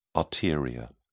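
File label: "arteria", British English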